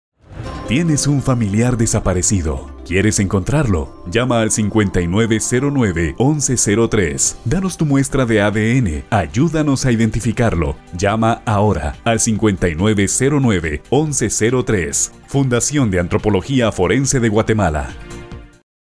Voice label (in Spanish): Spots